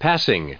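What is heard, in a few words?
"passing", us English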